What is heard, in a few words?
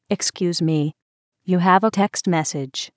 Posh Lady Text